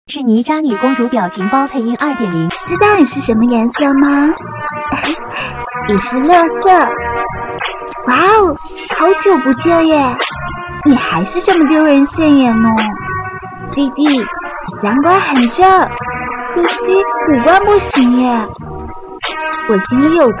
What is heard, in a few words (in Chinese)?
渣女 配音; 迪士尼